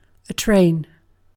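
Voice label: "train", British English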